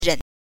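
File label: "ren", Mandarin Chinese, neutral tone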